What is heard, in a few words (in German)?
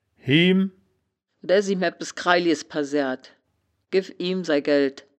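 "hiem", wittlicher woerterbuch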